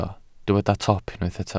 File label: "top", Welsh